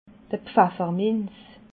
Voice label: "pfafferminz", alsace

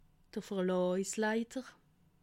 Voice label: "verlaasleiter", alsace

